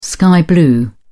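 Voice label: "sky blue", British English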